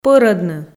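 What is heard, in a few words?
"пӧрӧдны", Komi, female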